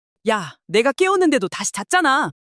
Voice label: anger; monologue